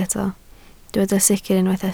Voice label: "sicr", Welsh